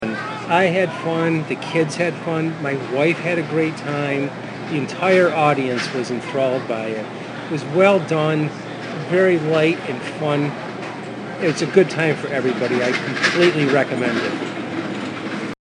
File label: Testimonial Father at Corporate Event